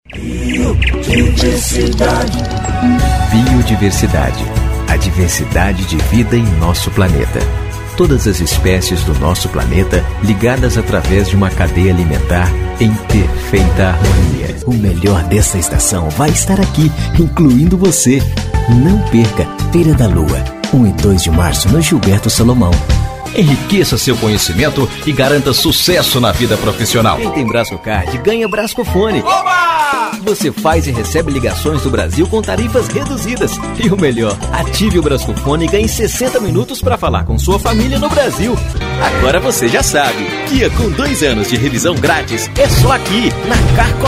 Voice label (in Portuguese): locutor